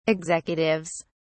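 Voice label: "executives", British English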